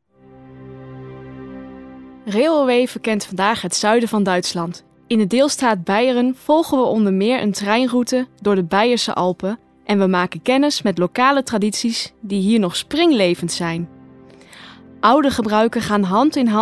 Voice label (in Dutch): stemdemo ducumentaire